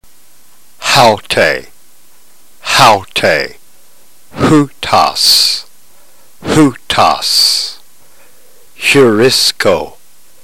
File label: drill, ntgreek